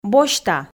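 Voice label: "босьта", Komi, female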